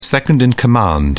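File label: "second-in-command", British English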